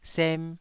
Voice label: "sem2", taiwanese syllable